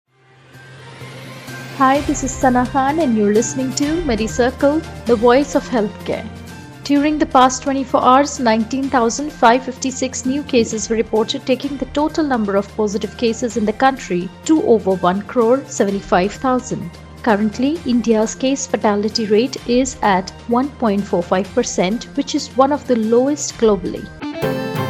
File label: english news